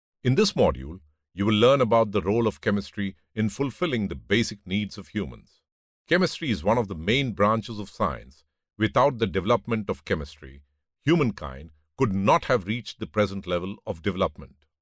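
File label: English M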